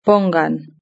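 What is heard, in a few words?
"pongan", Spanish; verb-conjugator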